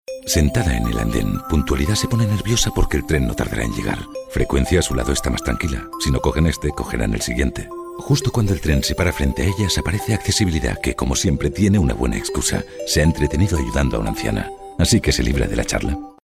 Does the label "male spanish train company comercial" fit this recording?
Yes